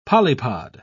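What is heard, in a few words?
"polypod", British English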